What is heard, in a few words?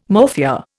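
"morphia", Indian English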